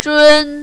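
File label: "zhun" first tone, mandarin, female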